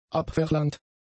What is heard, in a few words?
"abverlangt", german; adjectives